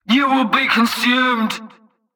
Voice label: Female Alert Line, Cultist Spotted; NPC; Heist